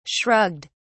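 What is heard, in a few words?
"shrugged", British English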